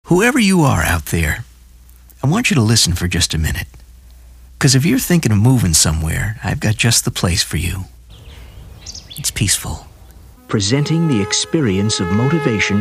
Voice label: Narration